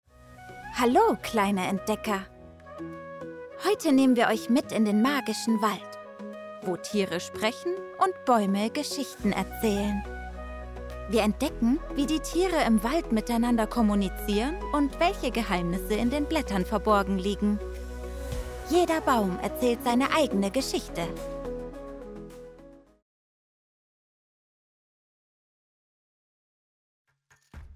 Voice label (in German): Dokumentation Kinder